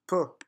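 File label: "peu", French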